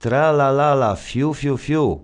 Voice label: narr; Szkola Koziolka; CyberMycha 2003 October

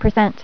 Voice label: "Percent", female voice; TalkingKeys

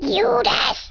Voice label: Traitor, Danish

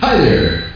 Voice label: "hi there", vocal

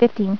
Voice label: "Fifteen", female voice; TalkingKeys

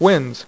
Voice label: "WINS", Dealer's Speech

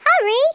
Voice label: "hurry", English speech

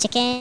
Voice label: "coward", english

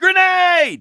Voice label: grenade, English Voice

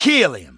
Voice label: taunt, English Voice

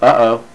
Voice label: Uh-Oh, Voix

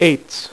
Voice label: "eights", dealer's speech; PowerPOKER Demo

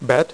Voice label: "BET", dealer's speech; PowerPOKER Demo

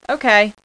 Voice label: "okay", voice

